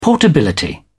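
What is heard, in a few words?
"portability", British English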